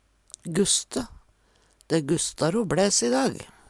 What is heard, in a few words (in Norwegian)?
gusste, Numedalsmål